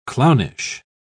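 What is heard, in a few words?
"clownish", English, British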